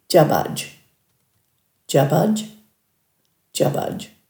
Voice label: "djabadj", Dhurga